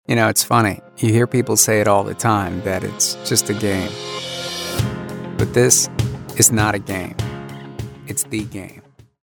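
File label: American English, male, corporate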